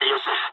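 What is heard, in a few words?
bomb success mood low, speech japanese; War Thunder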